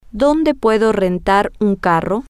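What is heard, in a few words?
Spanish, where can I rent a car, female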